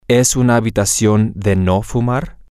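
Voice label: Spanish, male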